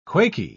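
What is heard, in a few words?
"quaky", English, British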